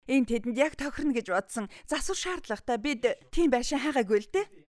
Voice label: Mongolian female

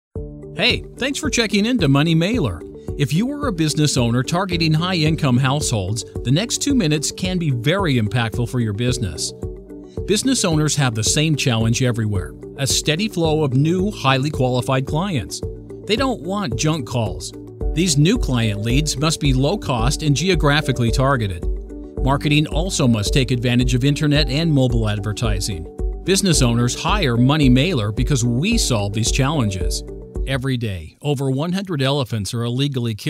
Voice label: English - American

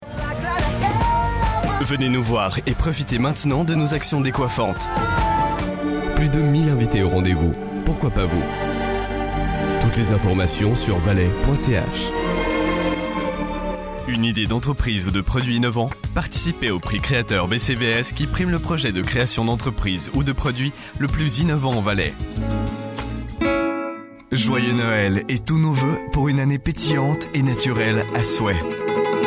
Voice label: French

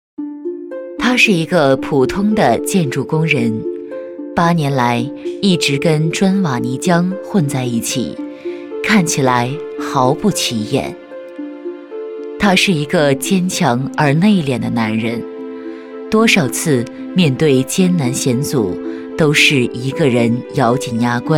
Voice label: Chinese - Mandarin

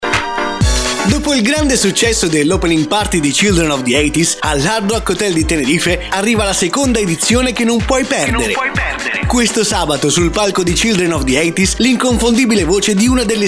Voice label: Italian

